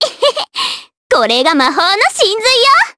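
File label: Vox Skill, voices, Japanese; kingsraid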